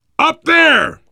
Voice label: world farmhouse, voice, biker; Left 4 Dead 2; survivor